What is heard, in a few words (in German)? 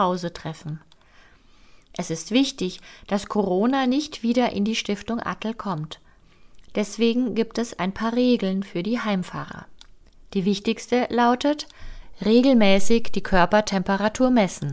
Leichte Sprache Heimfahrten